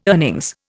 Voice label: "earnings", Indian

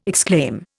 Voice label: "exclaim", Indian

